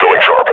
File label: announce attack, combine grunt; Half Life Model Pack